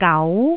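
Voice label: "gau2", Cantonese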